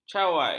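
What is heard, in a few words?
"cawai", Malay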